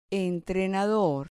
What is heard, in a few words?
"entrenador", Spanish